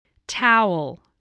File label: "towel", voice